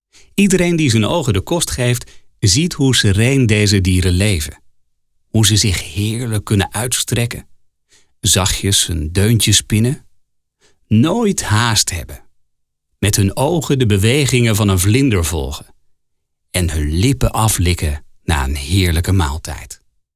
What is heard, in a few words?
sleepstory